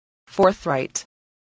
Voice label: "forthright", English voice, spelling